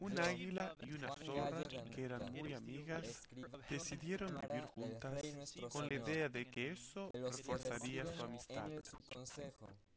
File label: male inst sim; SASSEC07